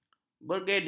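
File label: "bergedel", Malay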